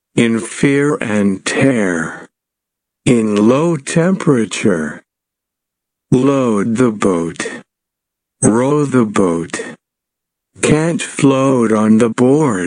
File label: Slow